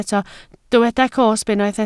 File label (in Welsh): "cosb", Welsh